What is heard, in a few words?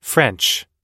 "french", pronounce